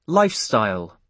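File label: "lifestyle", British English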